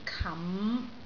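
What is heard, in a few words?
"kam5", Cantonese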